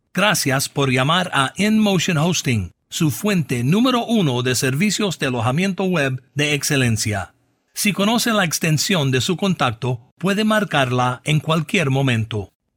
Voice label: Spanish secondary, bilingual